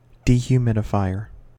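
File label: "dehumidifier", US English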